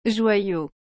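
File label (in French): "joyau", French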